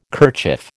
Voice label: "kerchief", American